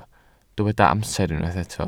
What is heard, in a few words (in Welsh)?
"amser", Welsh